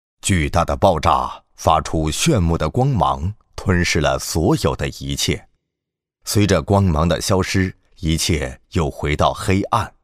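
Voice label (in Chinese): 【旁白】旁白男-沉稳讲诉; 宇宙旁白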